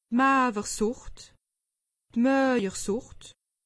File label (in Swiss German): "maawersucht", alsace; medecine